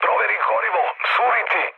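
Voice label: Serbian tank damaged mood low; speech; War Thunder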